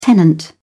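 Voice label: "tenant", British English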